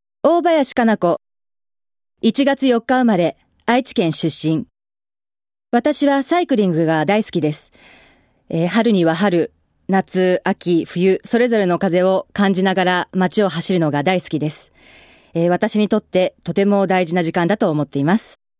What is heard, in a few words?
female voice freetalk